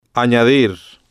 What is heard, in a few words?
"añadir", spanish